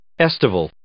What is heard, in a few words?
"estival", English